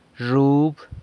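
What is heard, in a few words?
"zhub", corn, San Lucas Quiaviní Zapotec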